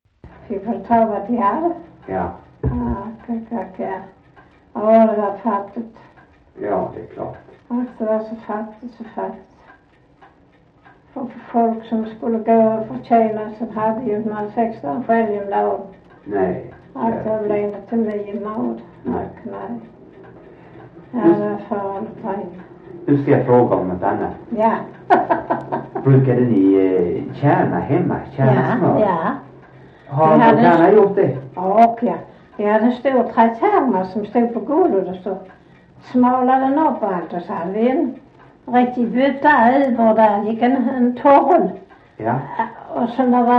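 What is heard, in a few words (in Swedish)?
Sövde Skåne, dialekter svenska sagor; Folkminnen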